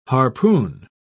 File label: "harpoon", pronounce